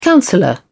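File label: "counsellor", British English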